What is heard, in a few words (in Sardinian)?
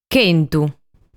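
"Chentu", Sardinian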